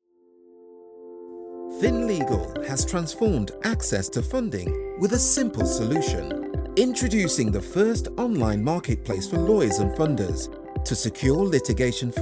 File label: UK Corporate Voice; UK-Corporate-Voice-Demo-Reel